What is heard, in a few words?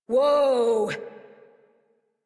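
horse stop adult, English; Warframe; Duviri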